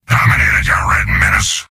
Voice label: soldier domination heavy; source engine; tf; mvm

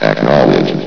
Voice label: "acknowledged", vox; source engine; hl1; video games

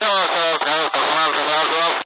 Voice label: cb radio chatter; Source Engine; cstrike

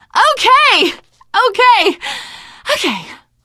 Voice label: hurrah, teen girl, survivor voice

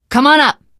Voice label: revive friend, survivor voice teen girl

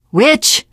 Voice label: warn witch, teengirl; voice; source engine; left 4 dead 2; survivor

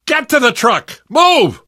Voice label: arrive truck, survivor voice

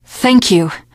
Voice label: thanks, teen girl

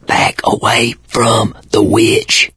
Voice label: witch getting angry, survivor voice mechanic; source engine; left 4 dead 2

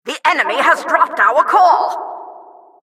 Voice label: announcer capture flag enemy dropped

